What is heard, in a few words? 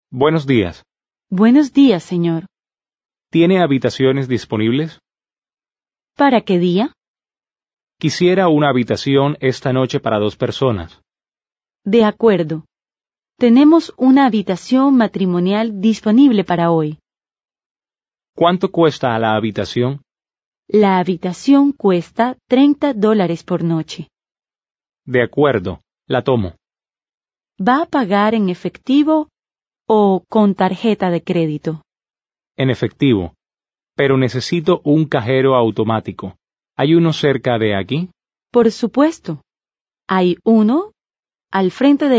Dialogue, Spanish